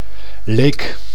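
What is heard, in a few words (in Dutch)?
"leek", Dutch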